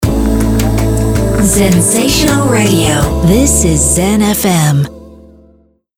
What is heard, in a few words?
radio; zen fm zensational